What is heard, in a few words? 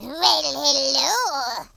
drop, French Lover; speech